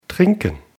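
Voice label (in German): "trinken", German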